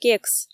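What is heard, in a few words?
"кекс", Komi, female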